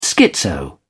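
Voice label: "schizo", British English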